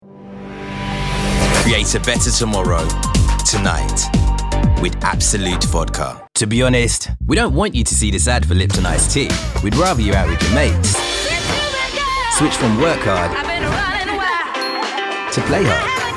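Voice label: British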